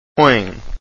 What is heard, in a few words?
oe nasal, Portuguese vowels